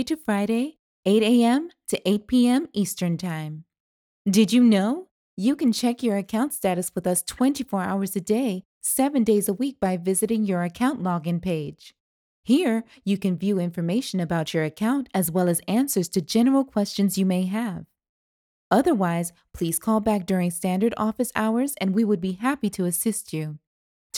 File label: bank IVR